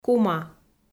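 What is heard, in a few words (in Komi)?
"кума", Komi-Zyrian, female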